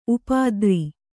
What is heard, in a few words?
"ಉಪದ್ರ", Kannada; upadra; noun